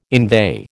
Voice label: "inveigh", American